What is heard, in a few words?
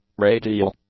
"radial", English; adjectives